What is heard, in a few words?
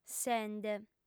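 "sende", female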